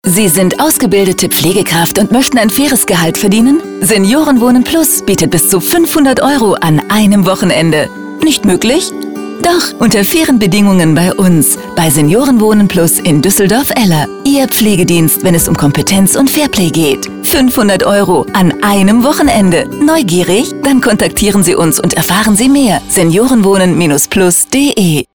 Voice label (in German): Seniorenwohnen Plus Spot Recruit Aushilfe; Aushilfe 500Euro